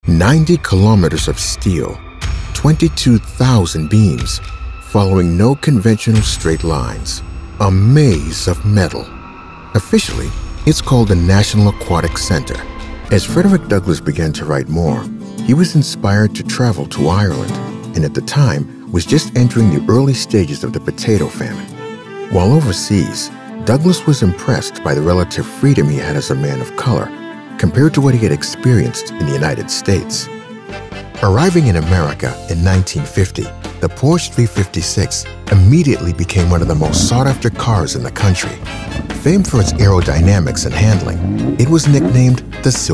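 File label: 40s Male African American Narration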